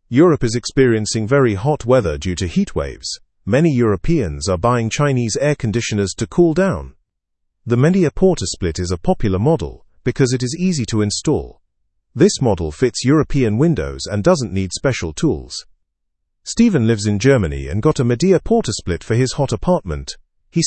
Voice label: english through news lower intermediate; europeans choose chinese air conditioners in heatwave